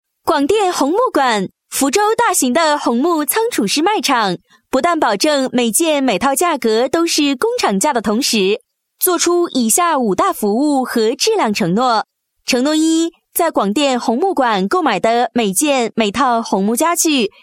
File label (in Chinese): 女 促销 促销宣传; 广电红木馆